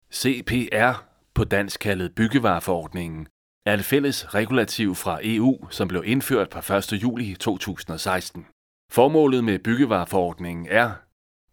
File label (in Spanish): narracion